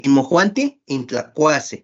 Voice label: ustedes comerán inmojuantij intlakuasej you will eat, Náhuatl